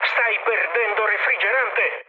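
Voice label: Italian damaged water mood med, speech; War Thunder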